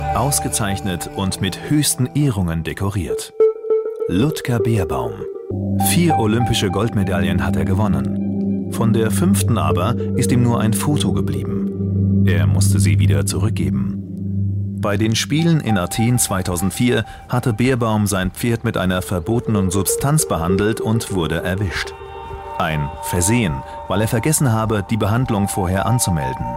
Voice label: Voice Over TV Doku, German; ZDF Doku